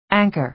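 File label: "anchor", American English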